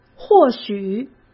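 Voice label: "或许", Mandarin Chinese; hsk; chinese flashcards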